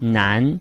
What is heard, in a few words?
"nan2", Chinese